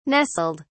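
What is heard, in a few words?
"nestled", British English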